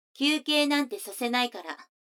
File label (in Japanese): 女の子 台詞, ASMR